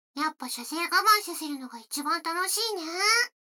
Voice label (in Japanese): 女の子 台詞, ASMR